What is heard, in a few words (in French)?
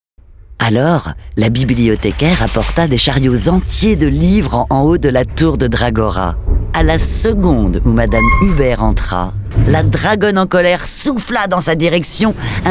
Narration audiobook; LUNII; ville bibliothecaire